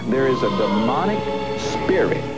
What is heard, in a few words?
demonic spirit